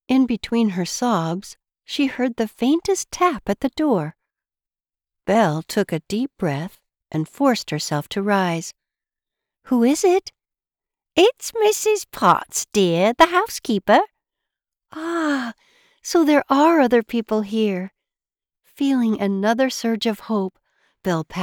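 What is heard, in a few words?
3rd person, F M, Kids YA Fantasy Fairy Tale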